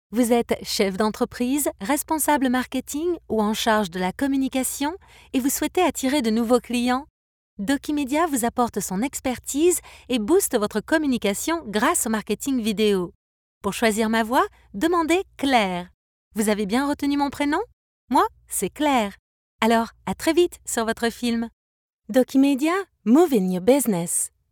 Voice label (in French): version fr; Dokimedia